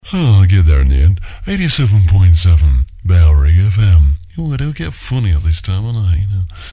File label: funny at night (male, no bed), jingles; 87.7bfm; bailrigg fm; bfm jingles ads RSL 1994